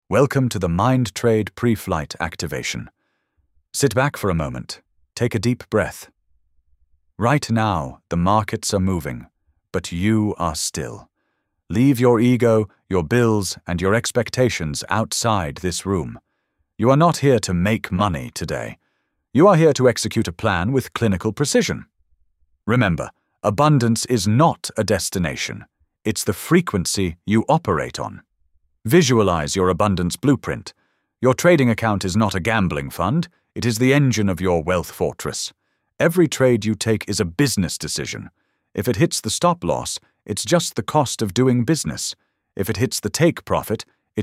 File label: Podcast